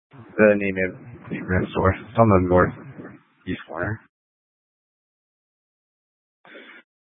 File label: Mesa PD Dispatch